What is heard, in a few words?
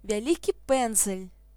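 paintbrush large desc, Belarusian; household; tools; tuxpaint-stamps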